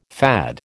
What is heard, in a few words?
"FAD", American